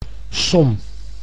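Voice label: "som", Dutch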